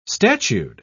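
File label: "statued", British English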